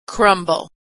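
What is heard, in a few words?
"crumble", American English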